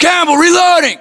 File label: US inform reloading, battlechatter, english